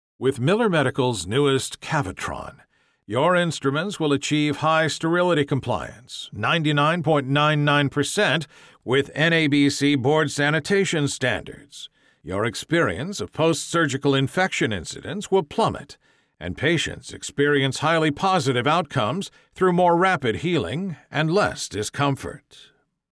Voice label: narration straightforward

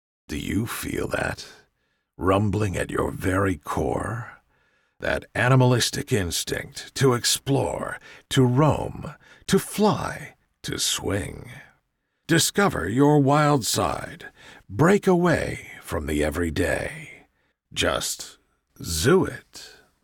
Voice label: commercial subversive aspirational

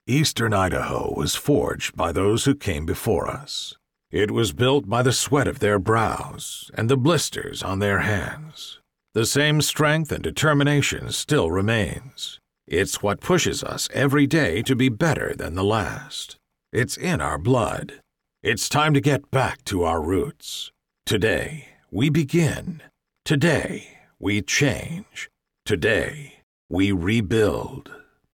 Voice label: Narration Motivational Growth Progress

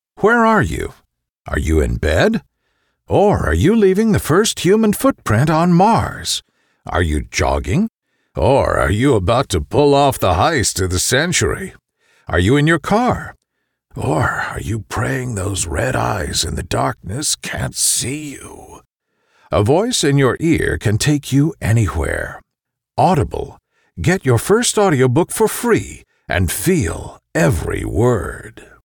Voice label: Audible Commercial, American Male Voice

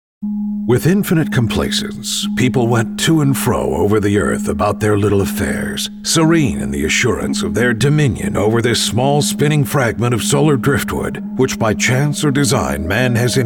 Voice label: Movie Trailer mysterious spooky; War Worlds

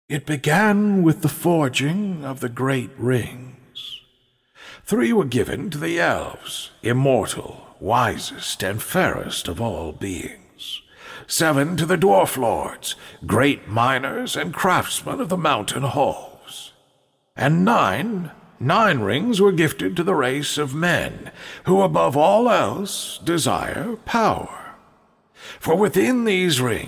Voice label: senior male story narrator

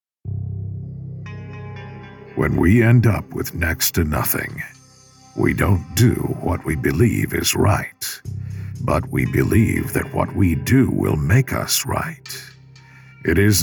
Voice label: Movie Trailer, dark deeper foreboding; We Are Enough

